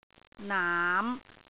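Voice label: "naam5", Cantonese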